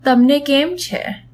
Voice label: how are you, gujarati; તમને કેમ છે